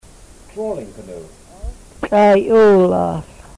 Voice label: "trawling canoe", Twana